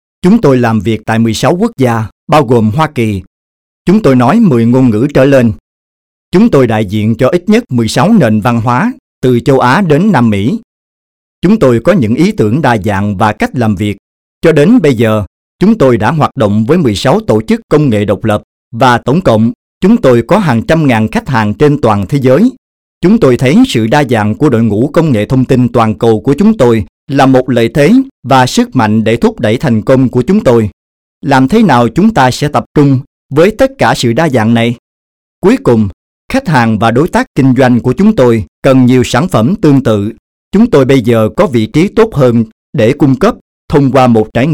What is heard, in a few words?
Vietnamese